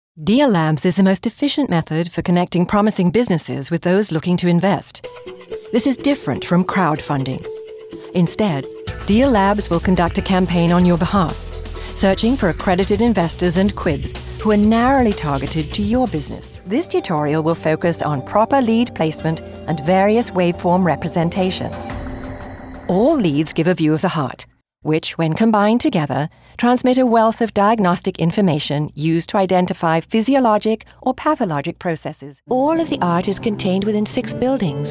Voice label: Narration